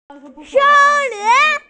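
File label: "forest", Mandarin; sen1lin2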